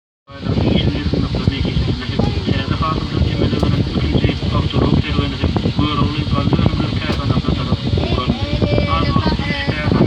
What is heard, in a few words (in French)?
radio turkmène